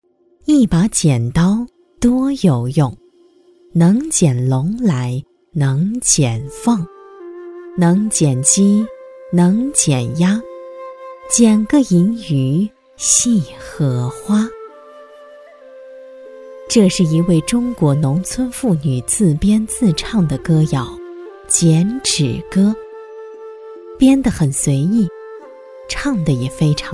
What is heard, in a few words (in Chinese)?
女 纪录片 古朴幽雅, 纪实记录; 剪纸艺术